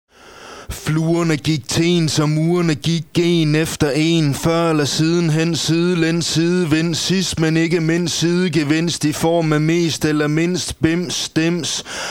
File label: dm a7 b gm c am dm g7 - rap